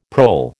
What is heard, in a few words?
"prole", American